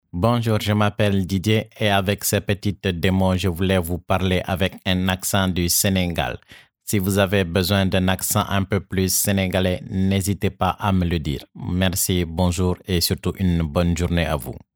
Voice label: accent senegal

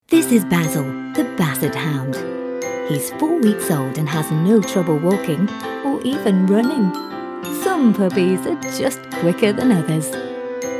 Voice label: Documentary Narration

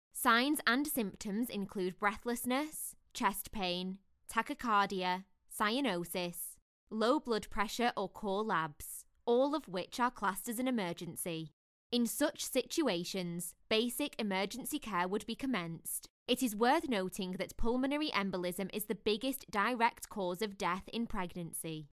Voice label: Home Studio Sample

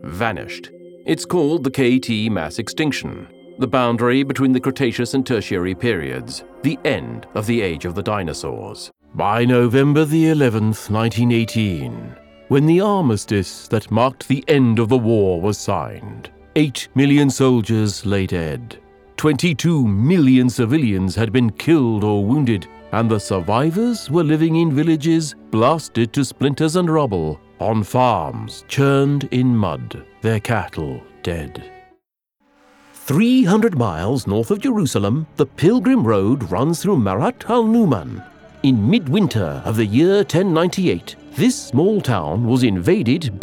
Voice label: narration

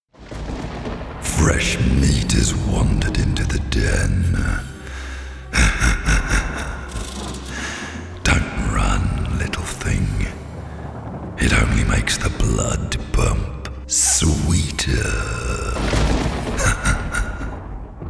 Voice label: Monster voice

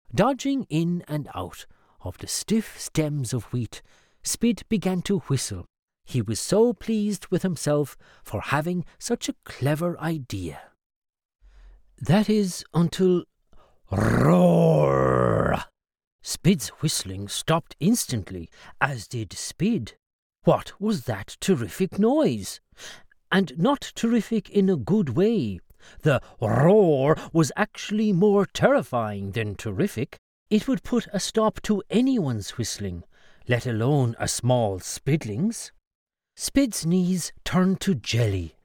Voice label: Childrens narration; Spid the spider extract

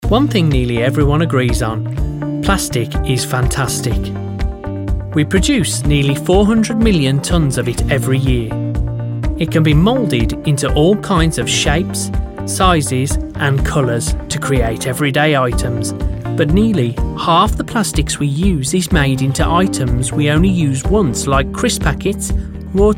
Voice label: NARRATION REEL

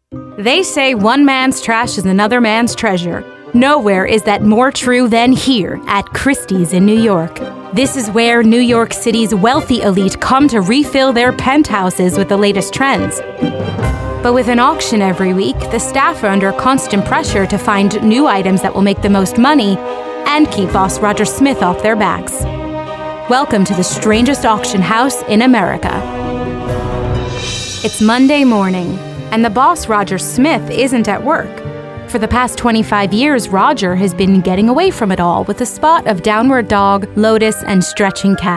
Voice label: Documentary

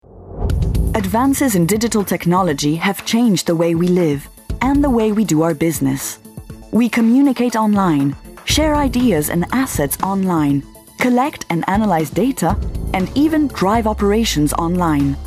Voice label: English Commercial Corporate

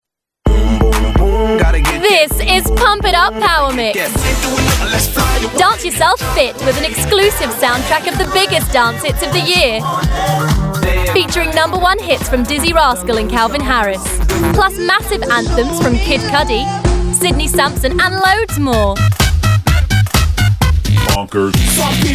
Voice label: hard sell

